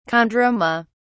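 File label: "chondroma", British English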